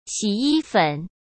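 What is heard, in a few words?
"洗衣粉", Mandarin Chinese; chinese-flashcards; hsk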